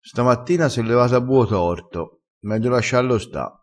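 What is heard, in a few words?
"a buo torto", Italian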